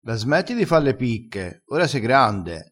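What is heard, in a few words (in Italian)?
"fa le picche", vocabolario dialettale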